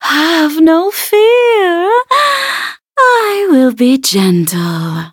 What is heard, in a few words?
battle, en; cookie run